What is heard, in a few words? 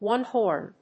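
"one horn", English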